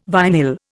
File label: "vinyl", Indian